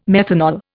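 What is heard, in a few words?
"methanol", English, Indian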